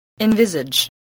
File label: "envisage", spelling